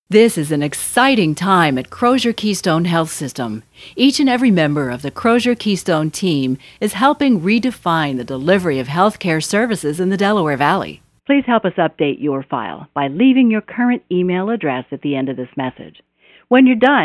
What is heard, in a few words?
Narration